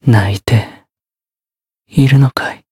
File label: retire, jp; dunarith